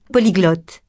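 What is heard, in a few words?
"polyglotte", French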